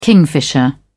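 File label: "kingfisher", British English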